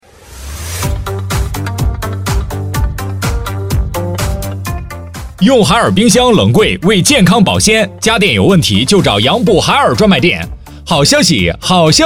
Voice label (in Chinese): 配音师男国语, 庄重大气 激情活力, 广告; 海尔冰箱